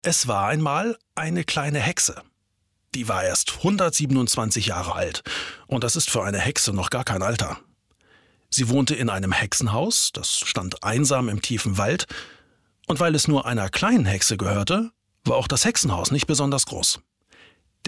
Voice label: Lesung kleine Hexe

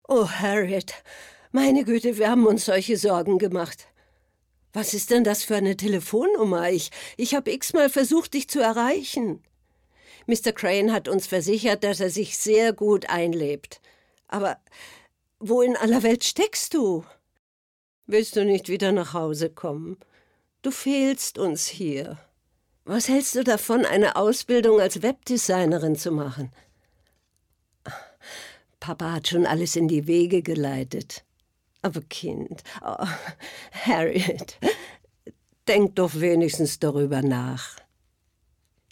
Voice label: synchron

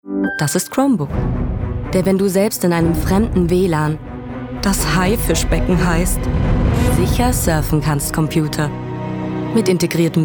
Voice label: Funkspot; Google Chromebook

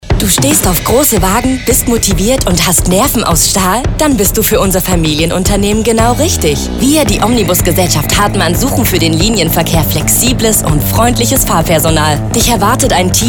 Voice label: Funkspot; Omnibusgesellschaft Hartmann

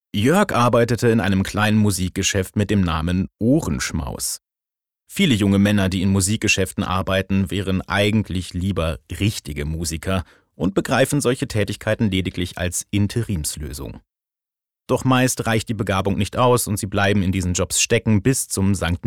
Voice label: Erzaehlung Hoerbuch

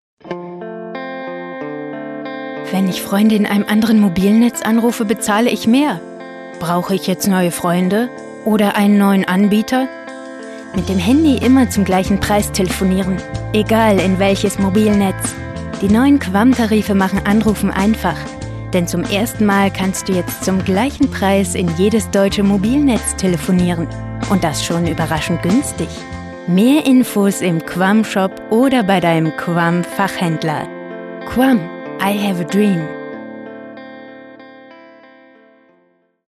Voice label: Werbung; quam

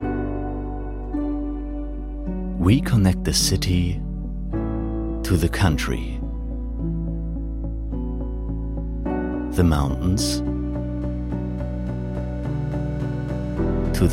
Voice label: Imagefilm englisch

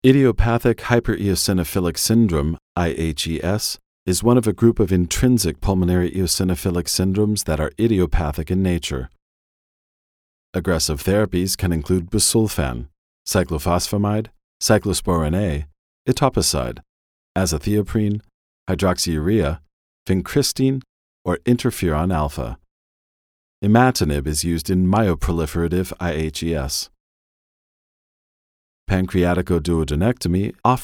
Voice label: us englisch; berlin; LOFT VOICES

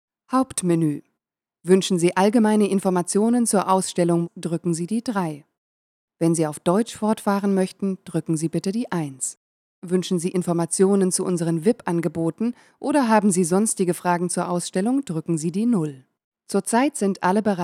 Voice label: Warteschleife; MartinGropiusBauBerlin; LOFT VOICES

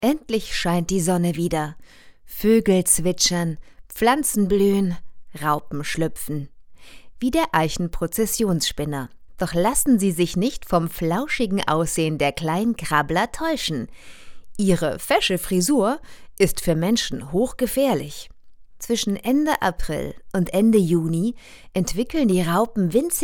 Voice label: Erklärfilm DE

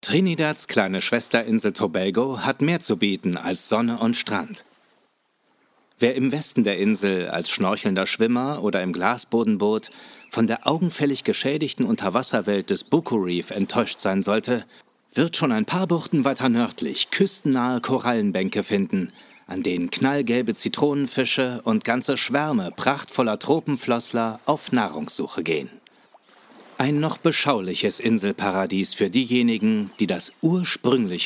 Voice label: Reise feature, Doku, Off, Presentation, German

